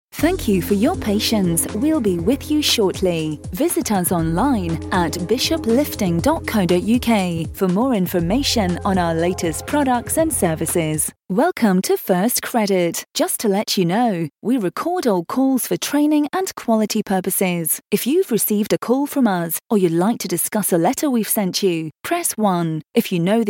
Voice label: english on-hold